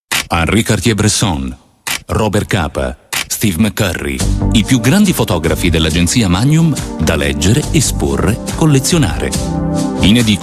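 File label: Italian, male, commercial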